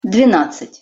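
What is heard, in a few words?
"dvenadcat", Russian pronunciation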